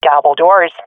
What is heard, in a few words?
doubledoors, swat fem; Counter Strike Model Pack; CS2; agents